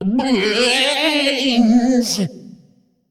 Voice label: Little Mind Demon Male Demon; Story Minion

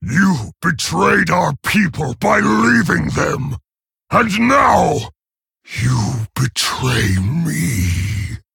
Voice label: Male Orc; Story Hero Garrosh; Story Garrosh Mission8 Intro

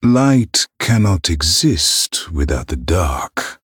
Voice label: Male Human Play

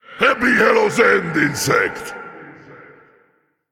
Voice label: Male Elemental Emote HAPPY HALLOWEEN

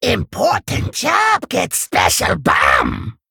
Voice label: Male Kobold Play

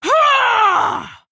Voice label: Female Orc Attack

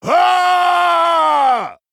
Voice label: Male Troll; Brukan

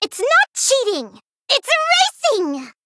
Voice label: Female Gnome Play

